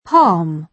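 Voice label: "palm", British English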